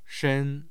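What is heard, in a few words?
"shen1", Mandarin Chinese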